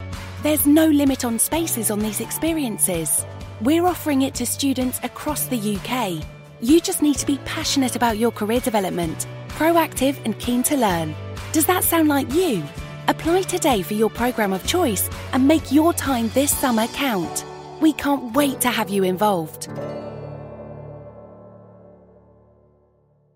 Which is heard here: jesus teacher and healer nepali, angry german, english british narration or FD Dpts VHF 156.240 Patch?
english british narration